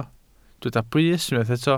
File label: "brys", Welsh